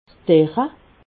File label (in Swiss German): "derre", alsace